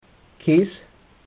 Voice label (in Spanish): "kis", amharico